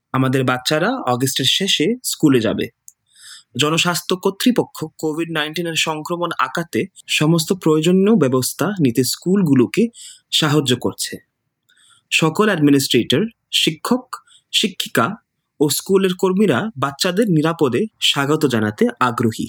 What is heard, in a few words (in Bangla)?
Message Bangla